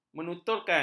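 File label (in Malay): "menuturkan", Malay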